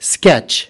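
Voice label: "sketch", English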